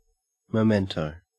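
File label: "memento", Australian English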